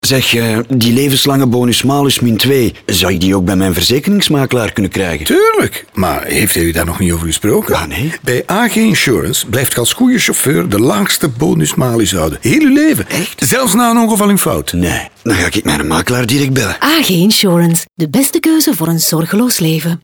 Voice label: radiospot